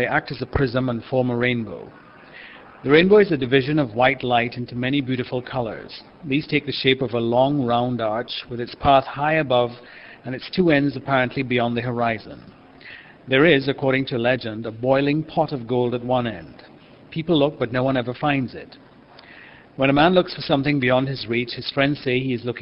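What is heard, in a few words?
trinidad, dialects of English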